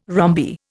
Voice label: "rhombi", Indian